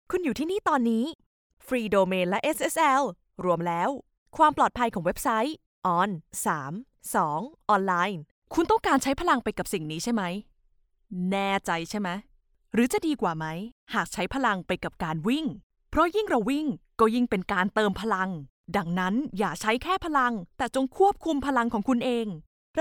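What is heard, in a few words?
Thai Thailand female sales; thai voice over talent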